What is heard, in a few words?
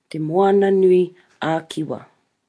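"te moana nui a kiwa", Māori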